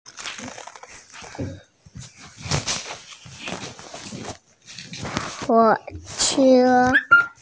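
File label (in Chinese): "huo3che1", Mandarin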